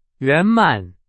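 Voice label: Chinese, vocabulary; hsk4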